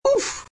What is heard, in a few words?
homemade oof